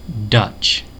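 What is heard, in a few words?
"Dutch", US English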